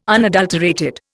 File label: "unadulterated", Indian